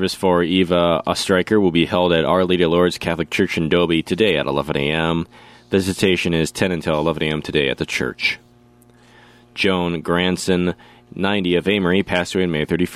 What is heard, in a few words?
Funeral Announcements